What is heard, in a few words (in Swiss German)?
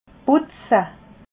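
"putze", Alsatian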